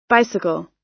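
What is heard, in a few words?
"bicycle", US English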